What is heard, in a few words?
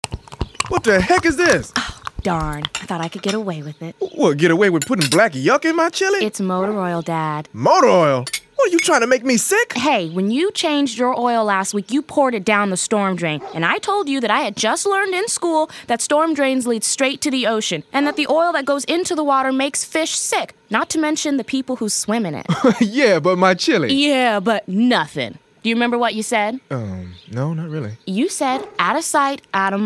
cooking english